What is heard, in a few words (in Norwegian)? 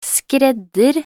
"skredder", talkbook